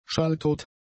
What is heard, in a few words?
"schalltot", german; adjectives